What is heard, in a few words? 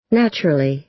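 "naturally", American English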